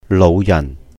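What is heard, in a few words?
"lóuh yàhn", Cantonese; old person; 老人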